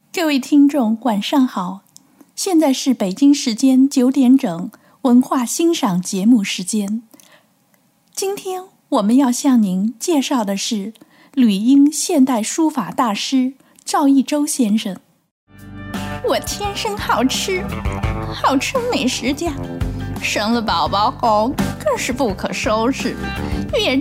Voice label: chinese coms

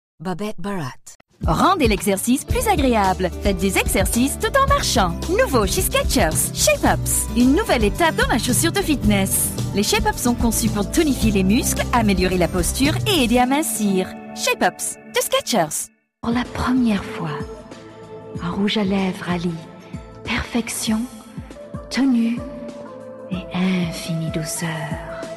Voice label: french

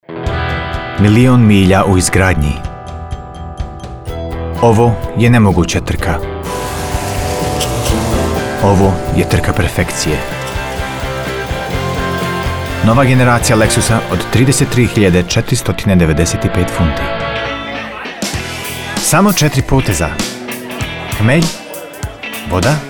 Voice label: croatian eng comms

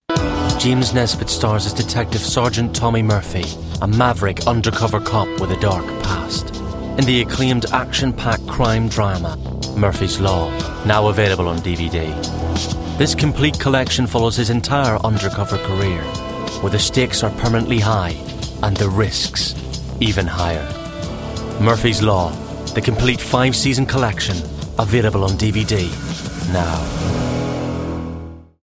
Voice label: promo

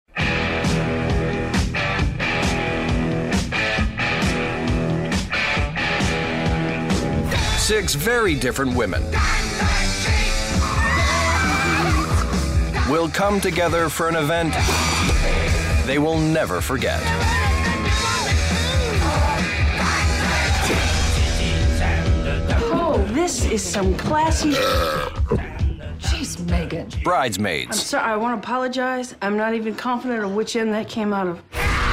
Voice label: film trailer

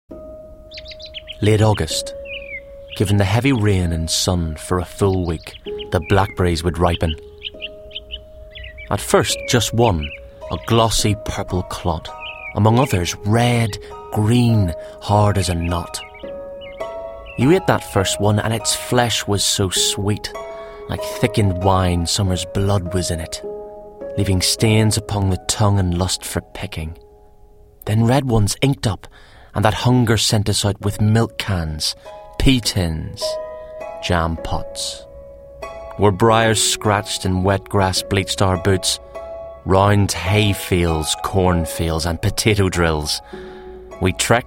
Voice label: poem

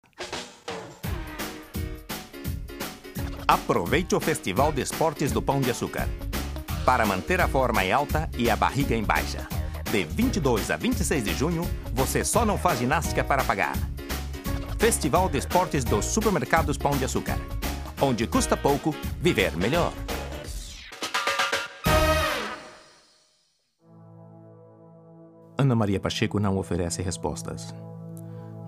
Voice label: portuguese brazil

